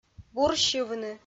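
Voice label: "бурсьывны", Komi, female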